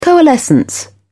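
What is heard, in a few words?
"coalescence", English, British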